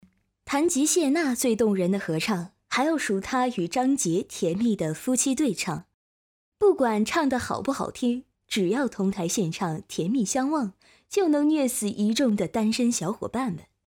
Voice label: 演绎类

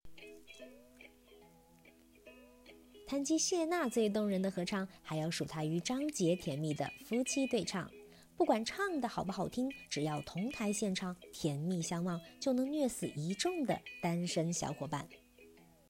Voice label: 综艺类

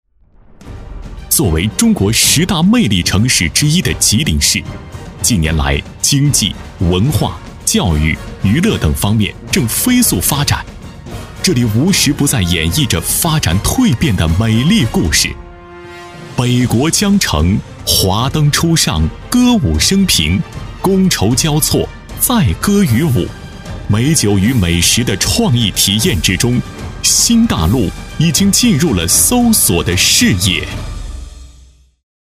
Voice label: 宣传 学校; 钧大学校

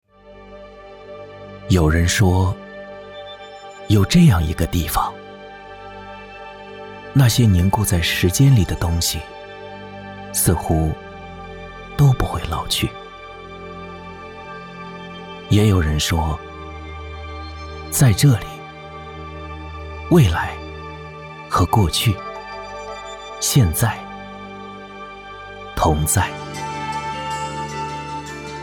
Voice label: 解说; 荣宝斋

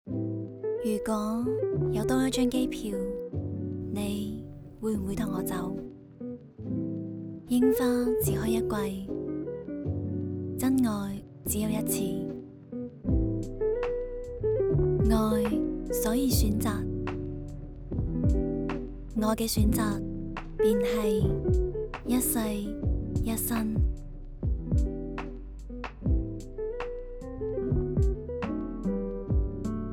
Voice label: 粤语样音港风短视频旁白; 粤语女港风短视频旁白《如果有多一张机票》

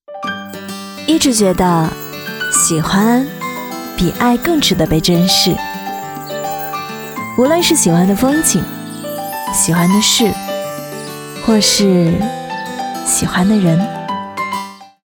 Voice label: 独白少女自然素人清新愉悦喜欢

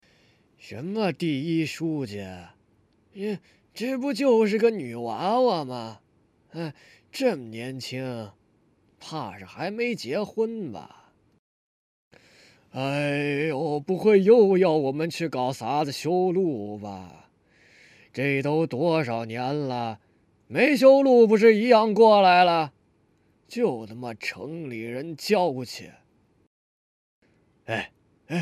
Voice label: 村民干音

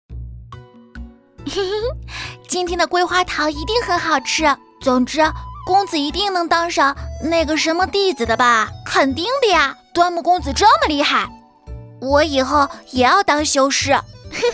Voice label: 小少女角色温暖配音

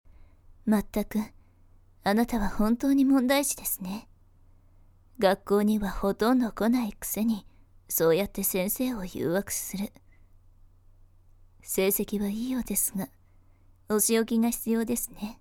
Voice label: 温柔的姐姐

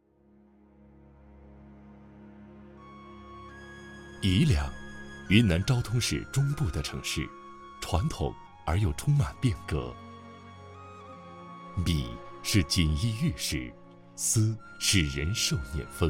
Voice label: 城市宣传片

